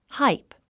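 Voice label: "hype", English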